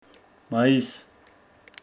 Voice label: Homshetsi may

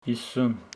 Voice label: Homshetsi fifty

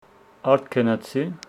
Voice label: Homshetsi i went to the field

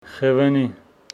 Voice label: Homshetsi quince tree

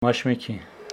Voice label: Homshetsi chesnut tree

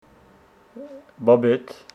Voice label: Homshetsi your grandfather

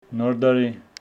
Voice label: Homshetsi januarly

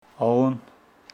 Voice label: Homshetsi blood

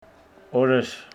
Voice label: Homshetsi days

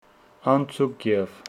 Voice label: Homshetsi he ate fish

